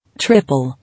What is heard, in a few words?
"Triple", English